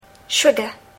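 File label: "sugar", English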